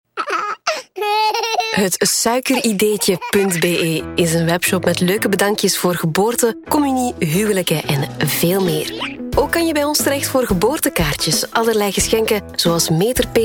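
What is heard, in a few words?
Flemish, female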